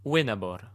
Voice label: male hunt, latin